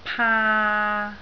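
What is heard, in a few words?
"paa3", Cantonese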